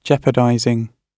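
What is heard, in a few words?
"jeopardizing", British English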